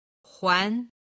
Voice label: "huan2", Chinese